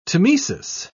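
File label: "tmesis", British English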